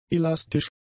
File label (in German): "elastisch", german; adjectives